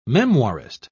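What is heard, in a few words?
"memoirist", British English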